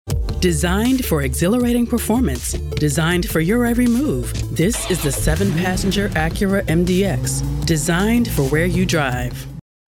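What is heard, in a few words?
commercial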